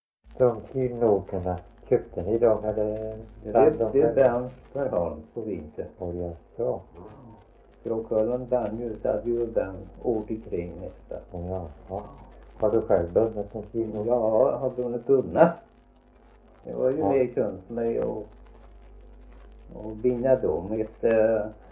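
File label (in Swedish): Värö Halland, dialekter svenska sagor; Folkminnen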